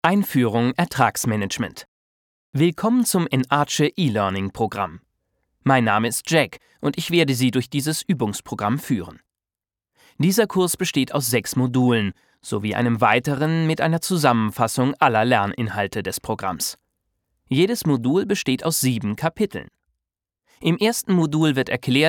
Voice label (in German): elearning, deutsch maenner; sprecher